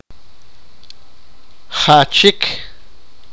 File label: sipakapense; split